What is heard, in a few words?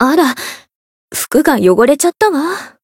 win, jp; hisumena